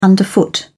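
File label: "underfoot", English, British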